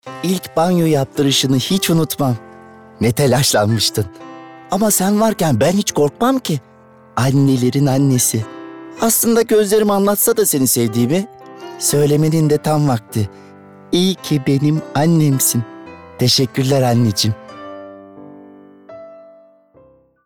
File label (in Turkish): Reklam